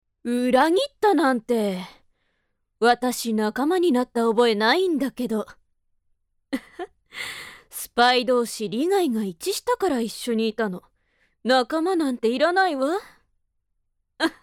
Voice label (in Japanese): アニメ